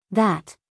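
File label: "that", American English female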